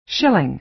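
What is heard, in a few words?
"shilling", American English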